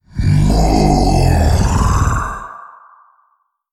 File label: Male Old God